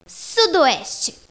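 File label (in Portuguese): "sudoeste", Portuguese; GEOGRAFIA; bussola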